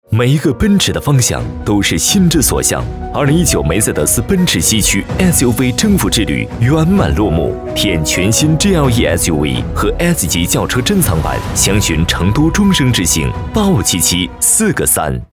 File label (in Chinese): 男 广告 浑厚; 奔驰